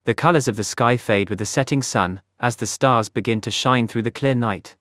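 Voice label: British English; iOS Sounds; arthur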